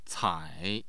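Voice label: "cai", Chinese, third tone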